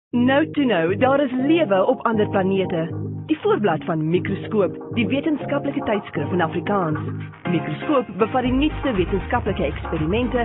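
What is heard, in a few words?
Afrikaans IVR; GoLocalise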